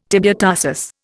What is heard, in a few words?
"tibiotarsus", Indian